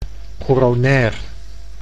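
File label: "coronair", Dutch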